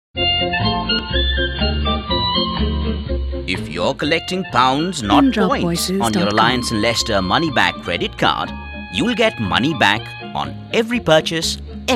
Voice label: English

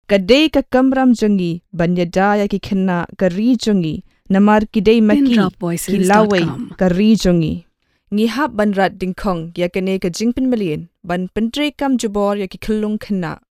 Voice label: Khasi

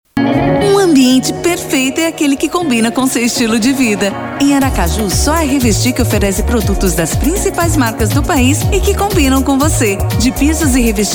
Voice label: Portuguese

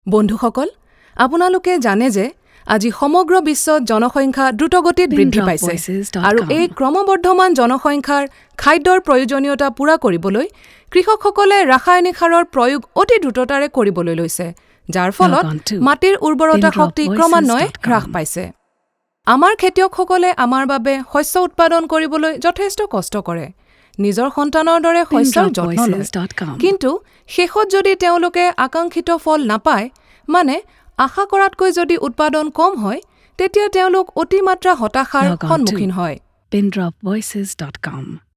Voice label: Assamese

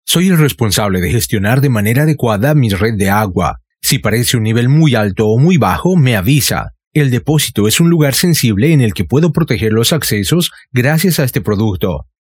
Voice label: Spanish

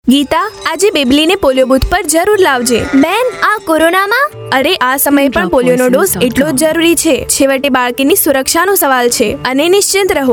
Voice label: Gujarati

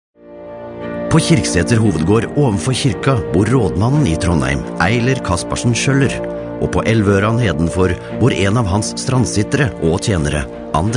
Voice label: Norwegian

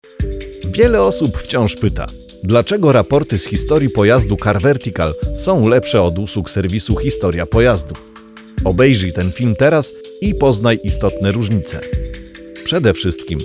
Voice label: Polish

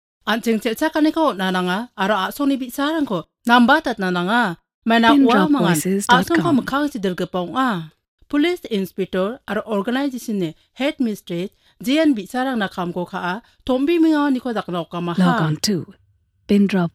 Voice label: Garo

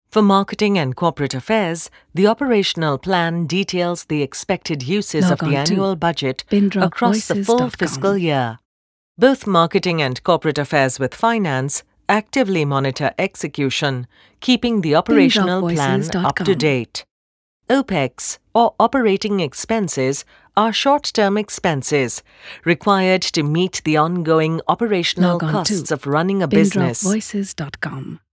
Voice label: English

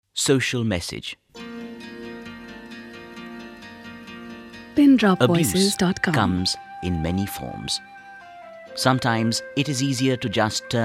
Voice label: English

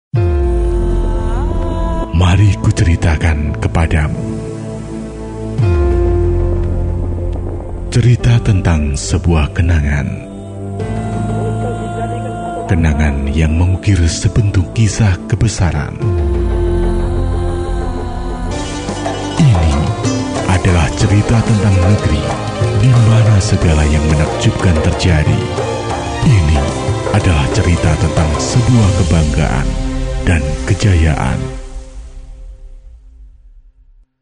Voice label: Indonesian Bahasa

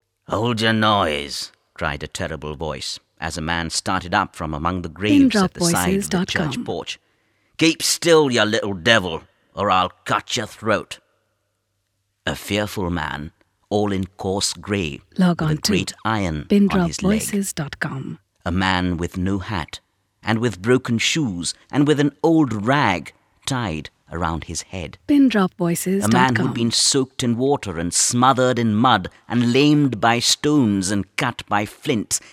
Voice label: English

